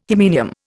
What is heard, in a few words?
"hymenium", Indian